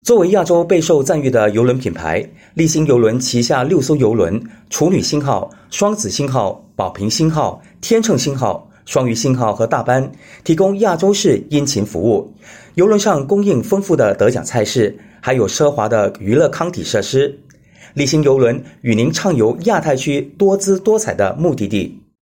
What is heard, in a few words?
Mandarin voice sample, China male